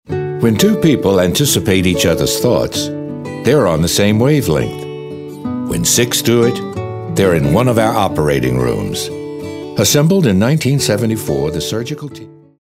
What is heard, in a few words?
friendly